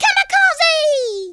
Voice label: "kamikaze", cowgirl speech